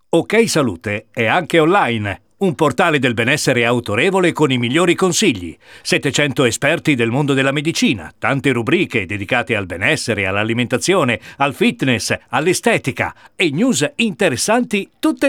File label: spot radio; ok salute